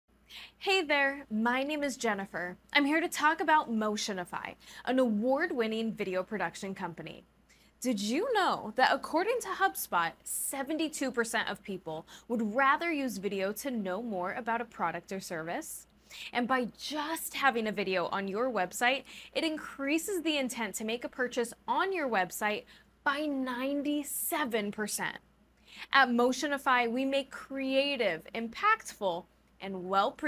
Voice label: voice over